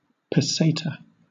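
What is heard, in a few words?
"peseta", British English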